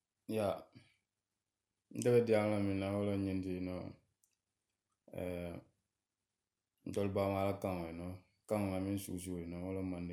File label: mandinka